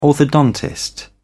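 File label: "orthodontist", British English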